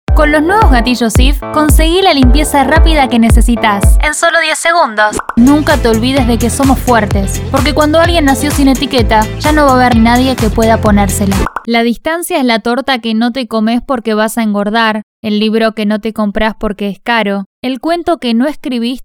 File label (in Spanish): rioplatense